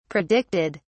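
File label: "predicted", English, British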